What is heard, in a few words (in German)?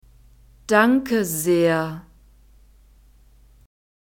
danke sehr, German